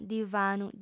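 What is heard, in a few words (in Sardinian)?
"divanu", female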